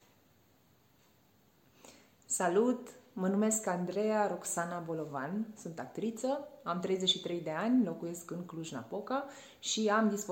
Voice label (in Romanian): despre mine rom